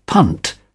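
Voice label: "punt", British English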